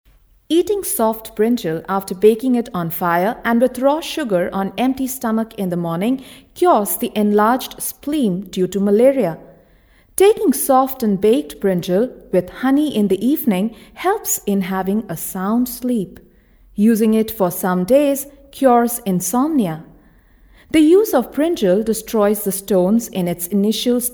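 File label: narration English, female voice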